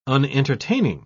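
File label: "unentertaining", British English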